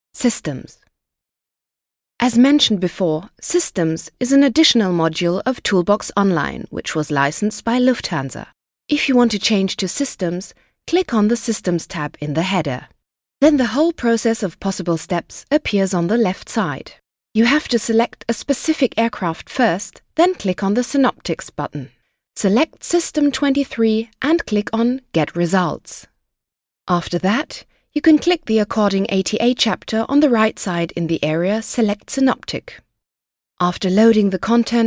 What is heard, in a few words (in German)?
Kommentar Variabel; EngUK